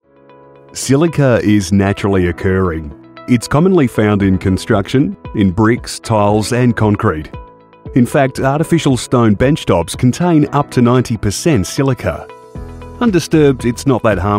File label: english australian e learning